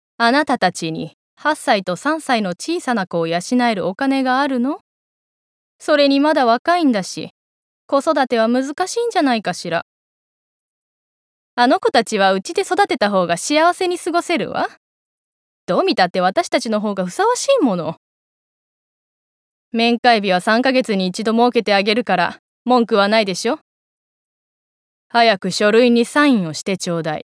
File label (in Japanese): 高圧的婦人; 居丈高婦人